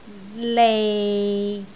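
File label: "lei6", Cantonese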